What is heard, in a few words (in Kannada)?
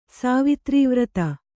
"ಸಾವಿತ್ರೀವ್ರತ", Kannada